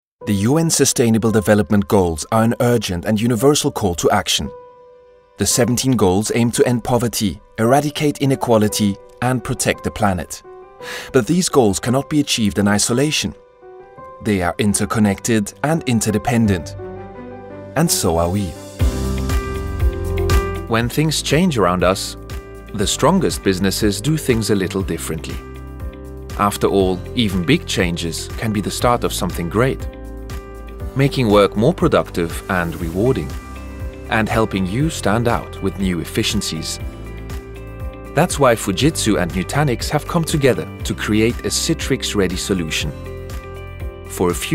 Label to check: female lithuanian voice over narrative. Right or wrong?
Wrong